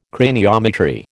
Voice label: "craniometry", American

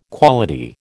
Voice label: "quality", American

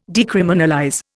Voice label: "decriminalize", Indian

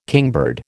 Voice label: "kingbird", American

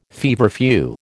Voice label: "feverfew", American

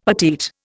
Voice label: "petite", Indian; Dictionary

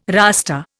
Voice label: "Rasta", Indian

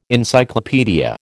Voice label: "Encyclopaedia", American

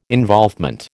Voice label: "involvement", American

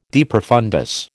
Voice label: "de profundis", American

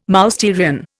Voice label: "Mousterian", Indian

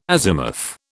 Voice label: "azimuth", British

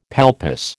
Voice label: "palpus", American

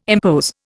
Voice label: "impose", Indian

